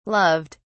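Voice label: "loved", British English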